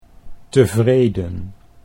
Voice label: "tevreden", Dutch